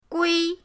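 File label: "gui", Mandarin pinyin, first tone; pinyindct-open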